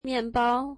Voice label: bread, mandarin

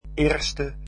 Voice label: first, dutch

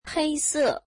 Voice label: black, mandarin

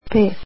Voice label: "five" croatian

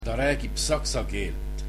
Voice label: he speaks German, estonian

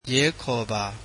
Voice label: Call the police, burmese

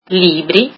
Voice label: books, italian

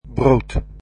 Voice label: "bread", dutch

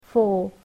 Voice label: "four", English

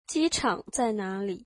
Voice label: Where is the airport, mandarin

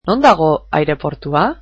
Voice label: Where is the airport, basque

